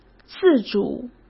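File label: "自主", Mandarin Chinese; hsk; chinese-flashcards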